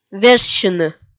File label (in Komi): "вежсьыны" kpv f